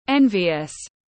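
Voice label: "Envious", English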